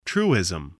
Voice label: "truism", voice